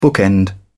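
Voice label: "bookend", British English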